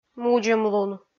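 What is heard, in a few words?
"мудзӧмлун" Komi, female; kpv audio dict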